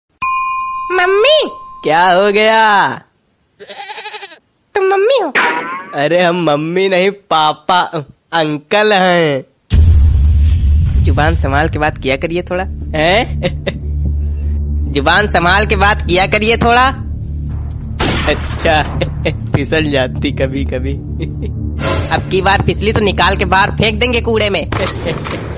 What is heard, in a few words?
Hindi Comedy Ringtone